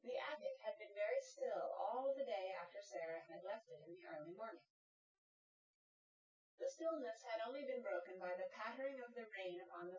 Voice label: female synthconv 250ms 1m; SiSEC08; SiSEC underdetermined